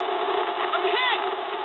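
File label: English aircraft destroyed mood med; War Thunder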